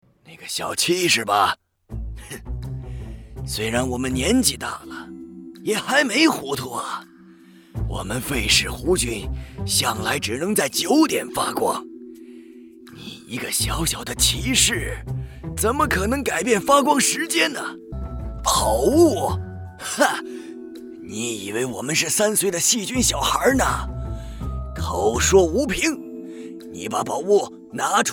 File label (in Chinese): 暴躁老爷爷